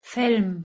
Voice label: "felm", Proto-Germanic